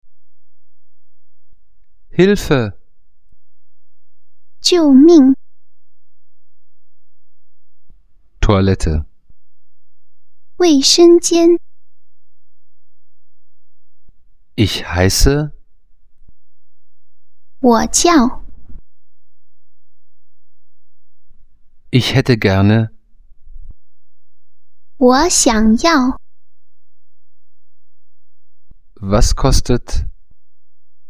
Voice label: chinesisch

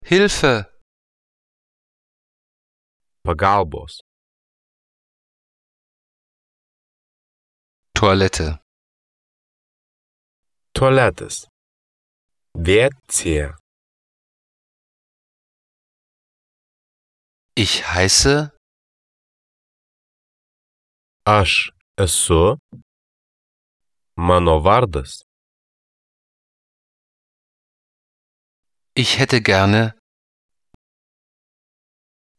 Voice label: litauisch

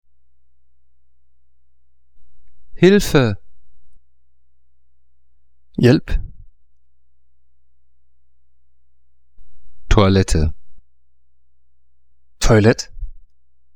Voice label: daenisch

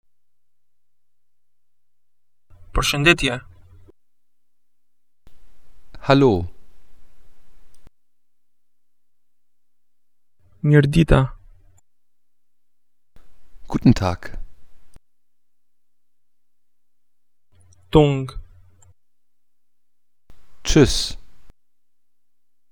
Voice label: albanisch

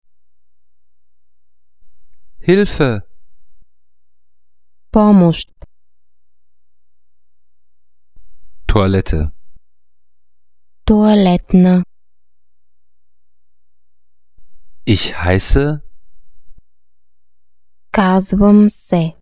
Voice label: bulgarisch

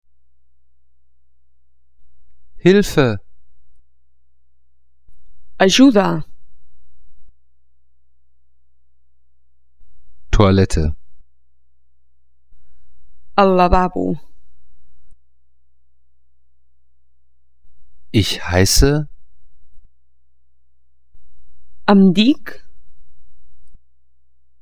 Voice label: katalanisch

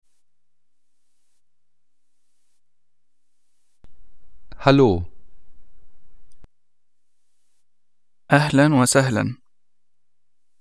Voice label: aegyptisch

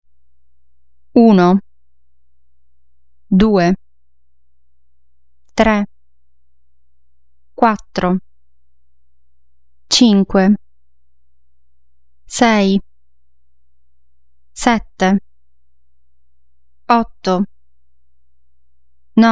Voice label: italienisch

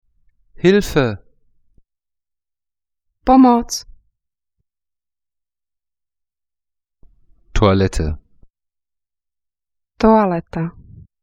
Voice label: Tschechisch

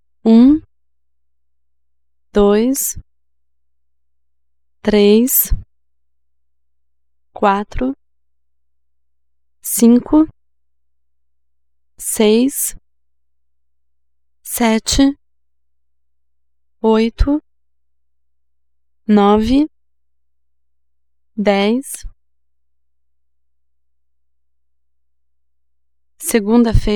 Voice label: brasilianisch

